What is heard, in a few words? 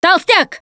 warn boomer, russian, Survivor Voice Producer